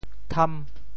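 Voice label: "tham5", Teochew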